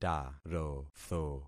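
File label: "tarotho", concatenated syllables; Affixing experiment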